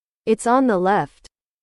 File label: english